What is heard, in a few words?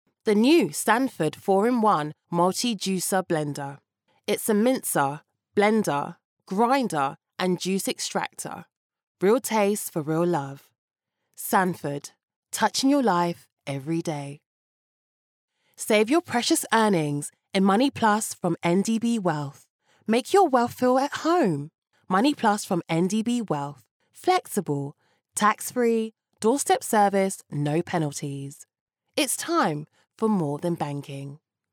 female british voice over sales; english british